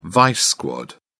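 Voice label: "vice squad", British English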